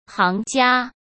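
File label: "行家", Mandarin Chinese; hsk; chinese-flashcards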